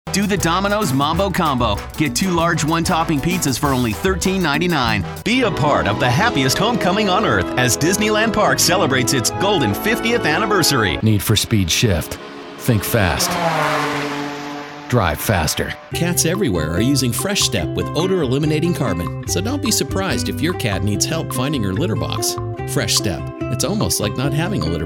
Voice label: Commercial